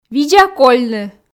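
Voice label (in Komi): "видза кольны", Komi, female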